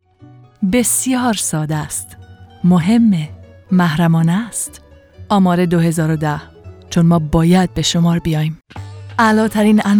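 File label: Persian, voiceovers other languages; foreign languages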